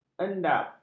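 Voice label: "endap", Malay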